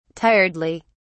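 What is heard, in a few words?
"tiredly", British English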